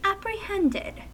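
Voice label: "apprehended", US English; pronunciations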